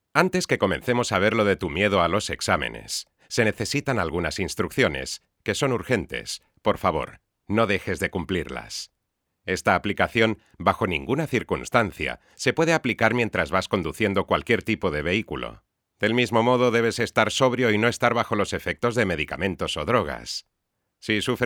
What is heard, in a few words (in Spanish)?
Aplicacion Spanish castilian